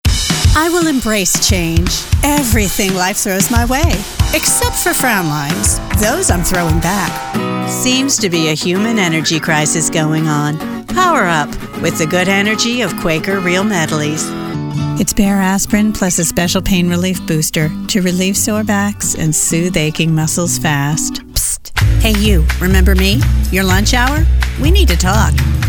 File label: COMMERCIAL